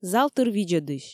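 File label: "зал тыр видзӧдысь", Komi, female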